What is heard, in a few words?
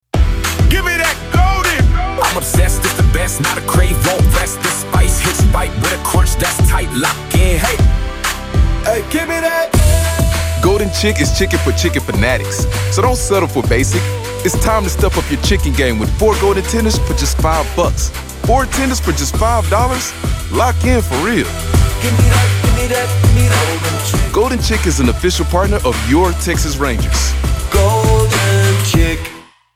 Radio; Golden Chick; gimme that golden campaign